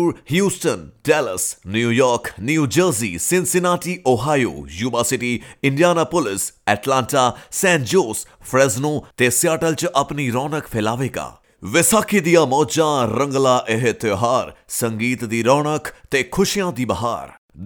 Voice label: baisakhi punjabi